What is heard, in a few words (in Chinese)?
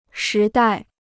"时代", Chinese